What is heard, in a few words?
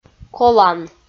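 "колан" Komi, female; audio dict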